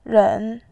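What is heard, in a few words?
"ren", Mandarin Chinese, third tone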